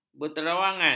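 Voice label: "berterawangan", Malay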